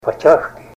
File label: suspenders, Ninilchik Russian; clothes